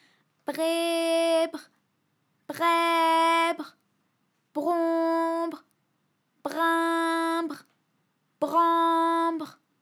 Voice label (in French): breh brai bron brin bran br, vocal library, FRA